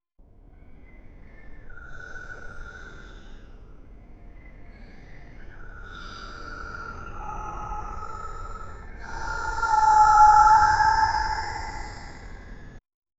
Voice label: the sound of whispers, psychological